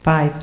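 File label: "five", English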